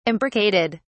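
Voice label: "imbricated", British English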